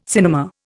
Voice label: "cinema", Indian

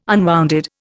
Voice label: "unwounded", Indian

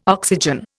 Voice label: "oxygen", Indian

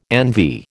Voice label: "envy", American

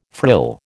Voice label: "frill", American

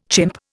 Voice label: "chimp", Indian

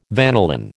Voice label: "vanillin", American

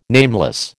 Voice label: "nameless", American

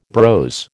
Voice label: "brose", American

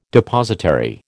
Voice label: "Depositary", American

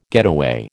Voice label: "getaway", American

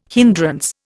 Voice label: "hindrance", Indian

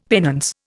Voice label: "penance", Indian; Dictionary

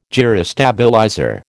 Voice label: "gyrostabilizer", American

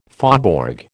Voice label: "faubourg", American

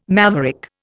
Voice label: "maverick", Indian

